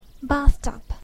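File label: "bathtub", English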